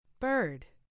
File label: "bird", en; FoodChain